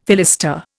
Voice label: "fillister", Indian